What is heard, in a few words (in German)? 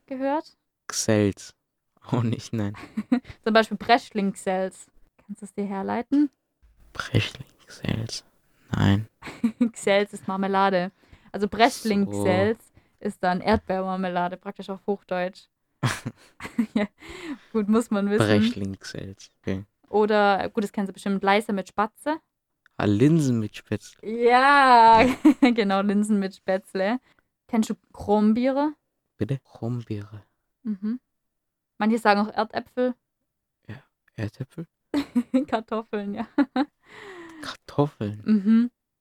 Quiz Schwäbisch